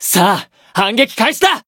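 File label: Voice variable chant, Japanese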